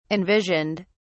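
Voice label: "envisioned", English, British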